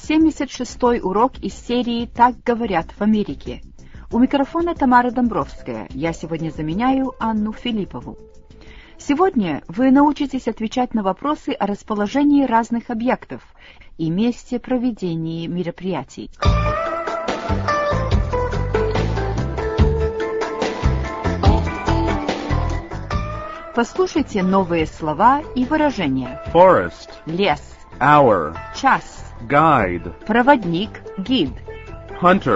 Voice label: russian english